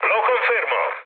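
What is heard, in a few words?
Italian player killed enemy mood high, speech; War Thunder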